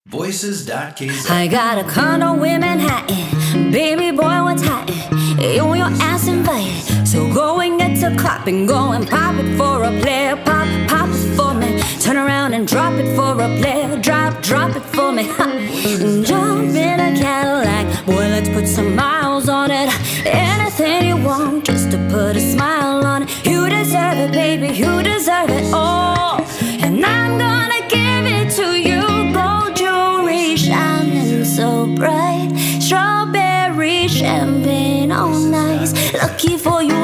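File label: English vocal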